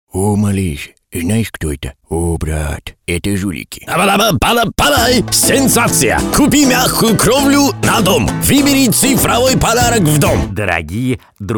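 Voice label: parody Russian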